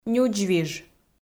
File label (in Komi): "нюдзвиж", Komi